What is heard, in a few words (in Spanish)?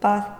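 "paz", Spanish, Andalusia, Sevilla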